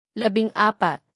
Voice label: native, tagalog numbers